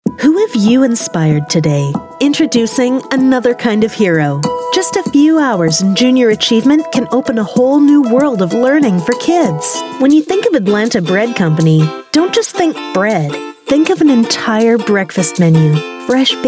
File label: US English Commercial, English US Female